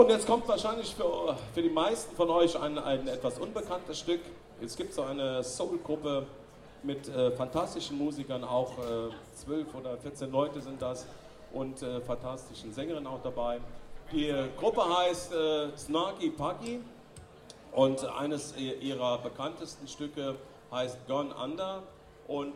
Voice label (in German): Ansage, Konzert; Boemundhof, Saarburg